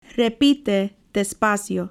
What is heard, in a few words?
Repeat slowly Repite despacio